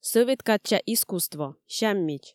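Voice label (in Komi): сӧвет кадся искусство сяммич, Komi, female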